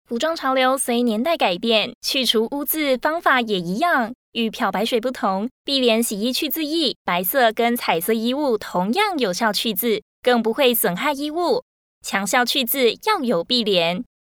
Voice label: Commercial

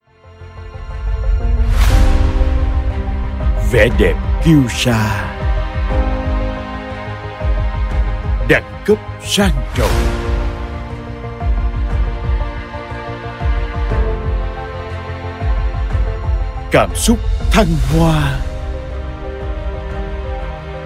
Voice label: Commercial

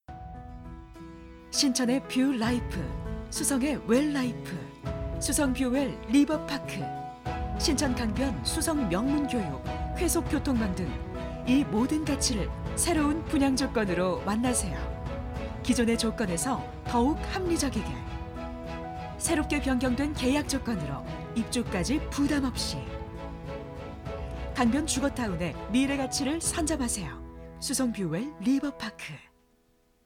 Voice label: Advertisement

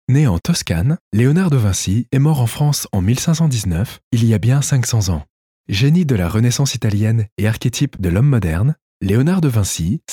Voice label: Audiobook

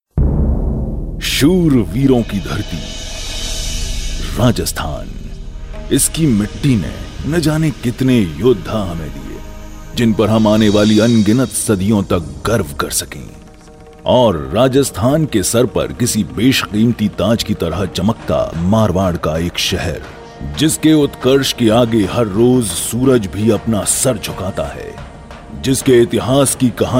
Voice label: Narration

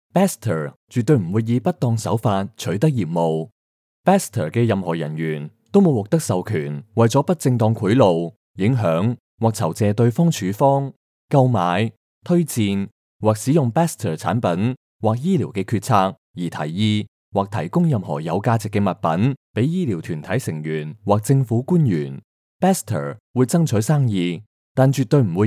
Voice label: Narration

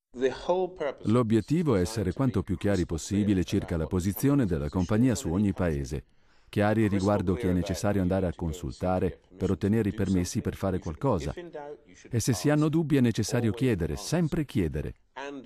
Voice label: Documentary

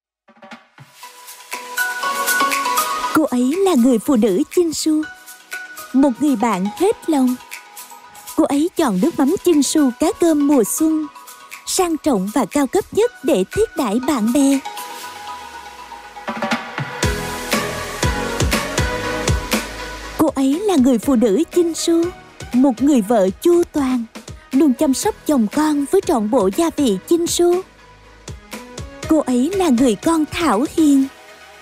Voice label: Commercial

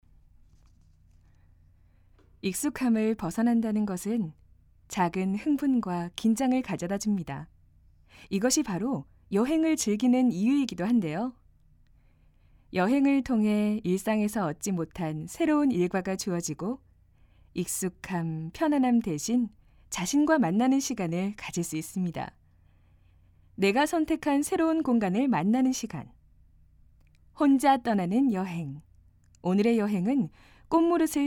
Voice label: Narration

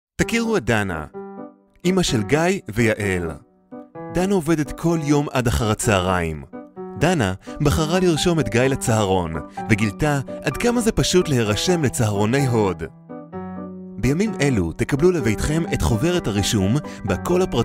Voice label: Commercial

